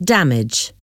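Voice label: "damage", English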